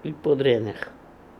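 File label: "Epodrenekh", pronunciations; RUS; Fourth Way; Beelzebub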